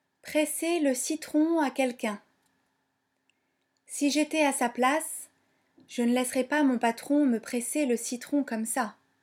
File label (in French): "citron", French